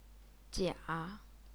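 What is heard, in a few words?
"jia", Chinese, third tone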